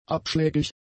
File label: "abschlägig", German; adjectives